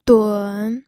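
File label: "dun", pinyin, third tone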